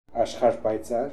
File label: "Aksharpanziar", pronounciations; Fourth Way; Beelzebub